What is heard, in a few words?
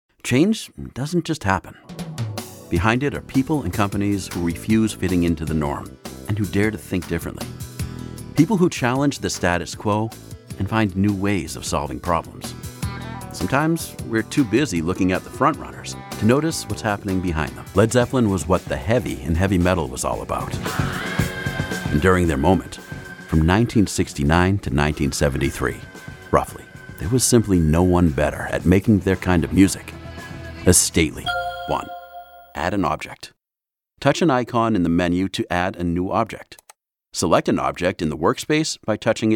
voiceovers Narration